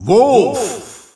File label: Dutch Announcer; Wolf; SSBU